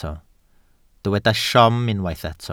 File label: "siom", Welsh